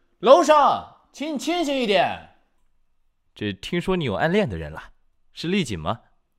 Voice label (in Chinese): 主持人; 粉丝